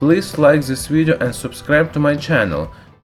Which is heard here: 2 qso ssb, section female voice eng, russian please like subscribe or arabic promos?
russian please like subscribe